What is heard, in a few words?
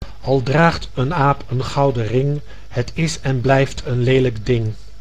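"al draagt een aap een gouden ring, het is en blijft een lelijk ding", Dutch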